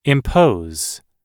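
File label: "impose", English; oxford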